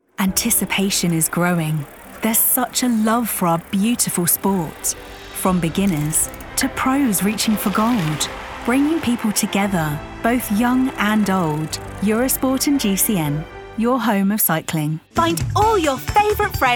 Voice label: TV Promo Showreel